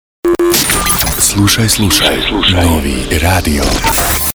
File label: Radio ID